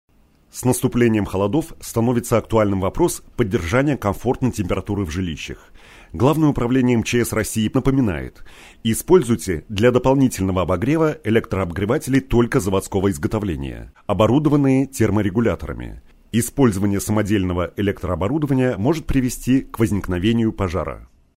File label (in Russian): пожарная напоминалка